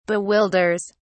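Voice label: "bewilders", British English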